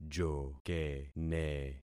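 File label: "jokene", concatenated syllables; Affixing experiment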